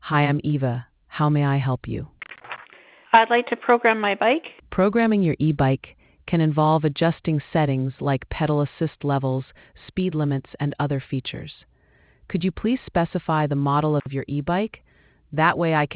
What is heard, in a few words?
conversation